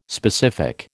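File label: "specific", American English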